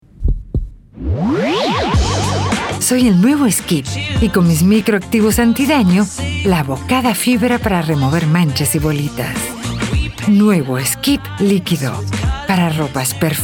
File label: Trailer Soft Sell